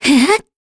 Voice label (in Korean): Vox Casting, kr; Talisha; kingsraid; voices heroes